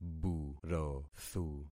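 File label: "burothu", concatenated syllables; Affixing experiment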